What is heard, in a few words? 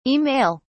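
"e mail", British English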